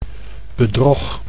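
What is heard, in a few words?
"bedrog", Dutch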